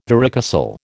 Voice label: "varicocele", American

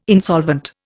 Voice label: "insolvent", Indian

